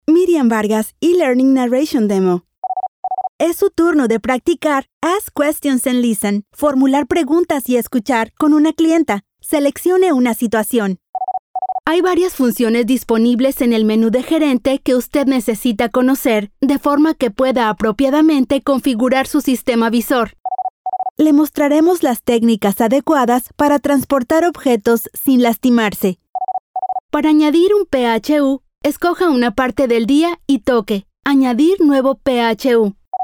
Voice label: spanish neutral female